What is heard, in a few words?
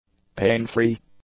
"pain-free", English; adjectives